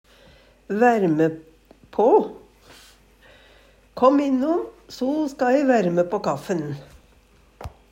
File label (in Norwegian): vaerme pa, Numedalsmål; varme opp; Kom innom, so ska e værme på kaffen.; Uttrykk